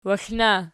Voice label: waxlna nom, archi

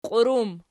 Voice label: "qiurum", Archi

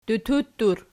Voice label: "dututtur", Archi

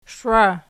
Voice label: "shiwa", Archi

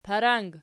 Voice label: "parang", Archi

